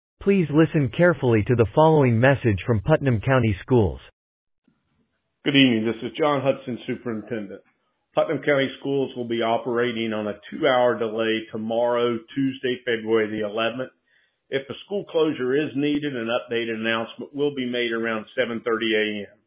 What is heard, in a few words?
Voicemail